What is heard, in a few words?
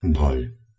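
"dhol", Proto-Indo-European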